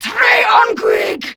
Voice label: Attack, Female

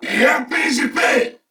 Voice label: Reload, Corpus Venus Male; Dialog; Barks; Battle; Warframe; CorpusVenusMaleA

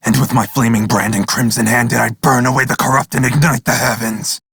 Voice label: Crew Gun Kill Fighter, Male; Dialog; en; Warframe; Railjack Crew; Red Veil

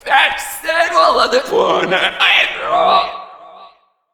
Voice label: Female Taunt; Warframe; Female Grineer

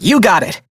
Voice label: Crew Accept, Male; Dialog; Warframe; Railjack Crew; Perrin Sequence

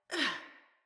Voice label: Roll Female, Battle Barks; Envoy

